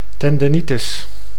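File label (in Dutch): "tendenitis", Dutch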